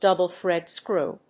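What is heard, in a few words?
"double-thread screw", English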